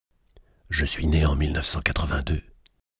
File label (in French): voix basse